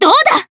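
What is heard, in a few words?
attack jp, Japanese; isuka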